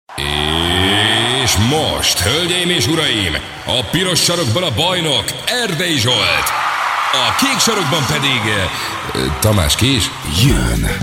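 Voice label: Hungarian, male, commercial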